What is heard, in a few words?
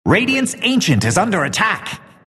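announcer radiant ancient attack; Dota 2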